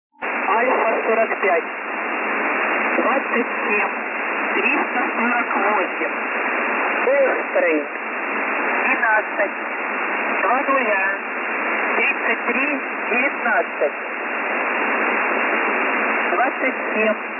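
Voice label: message, Russian military voice stations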